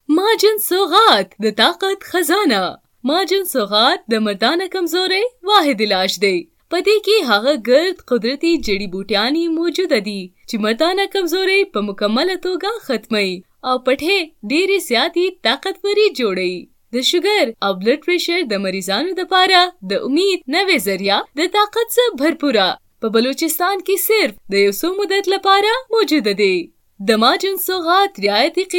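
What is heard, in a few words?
Pashto, commercial; GoLocalise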